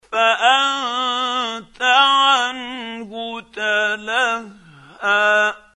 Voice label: quran, Hussary, tafseer; Abasa